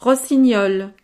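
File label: "rossignol", French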